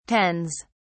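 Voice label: "tens", British English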